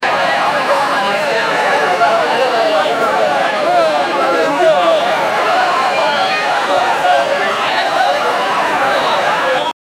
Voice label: crowd says i here to; speech

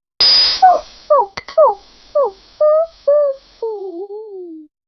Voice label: vintage hollywoo, female voice

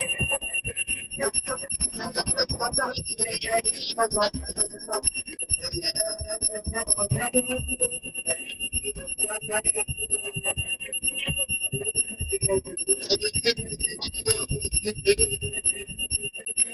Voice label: alien mid voice loop

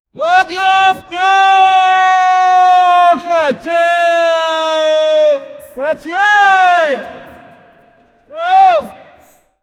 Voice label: people yelling over food

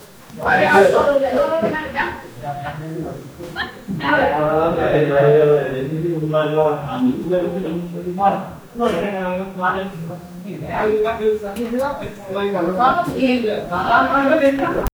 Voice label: 2 people having a convers; human voices

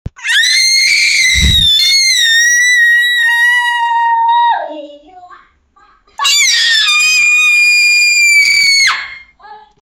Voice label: a 4 year old girl; animal

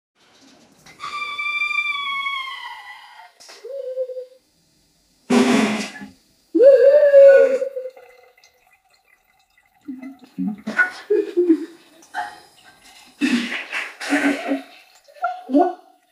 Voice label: a person screaming oh yea, comedy

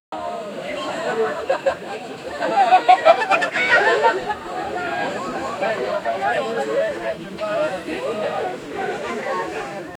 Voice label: people enjoying at a chil, chatting

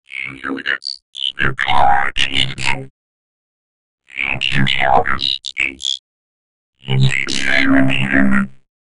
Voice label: human voice effect robot; broadcast

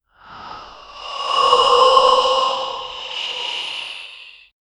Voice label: voiceover strained losing

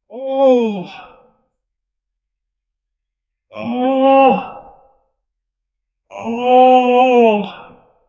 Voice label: old homeless man saying u, vocal

